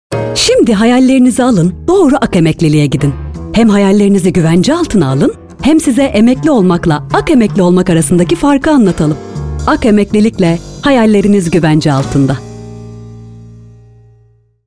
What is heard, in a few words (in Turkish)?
reklam; ak emeklilik